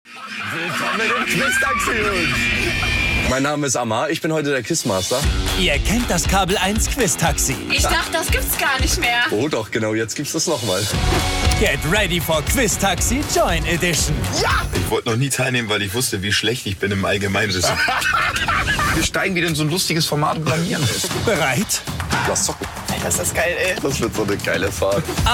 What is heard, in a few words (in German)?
Trailer, maenner; Joyn; Quiz Taxi